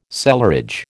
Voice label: "cellarage", American